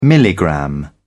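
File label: "milligram", British English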